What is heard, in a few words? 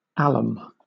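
"alum", British English; pronunciations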